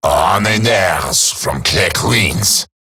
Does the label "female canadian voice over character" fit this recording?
No